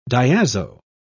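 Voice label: "diazo", British English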